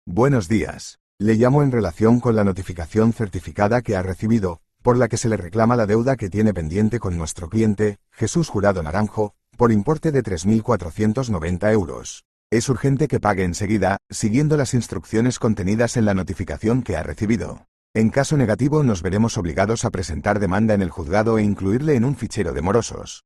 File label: audio call